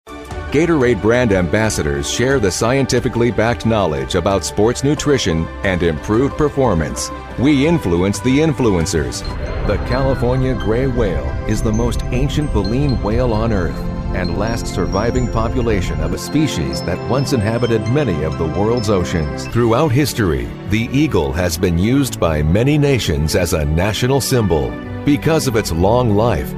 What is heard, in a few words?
Narration